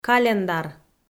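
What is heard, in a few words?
"календар", Komi, female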